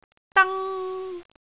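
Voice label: "dang1", Cantonese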